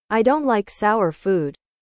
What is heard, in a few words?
english